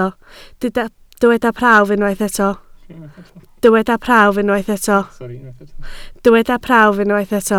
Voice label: "prawf", Welsh

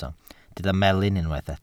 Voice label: "melyn", Welsh

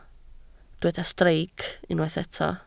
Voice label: "streic", Welsh

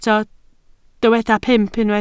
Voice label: "pump", Welsh

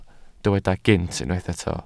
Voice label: "gynt", Welsh

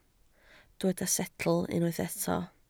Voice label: "setl", Welsh

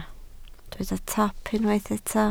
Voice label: "top", Welsh

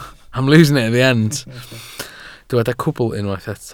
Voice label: "cwbl", welsh

